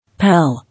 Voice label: "Pal", English